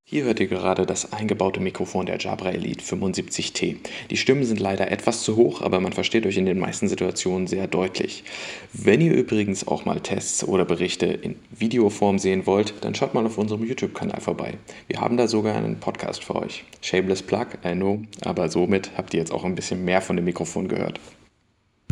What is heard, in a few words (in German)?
Jabra Elite 75t Mikrofon Test